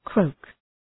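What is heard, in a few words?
"croak", English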